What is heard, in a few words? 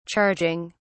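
"charging", British English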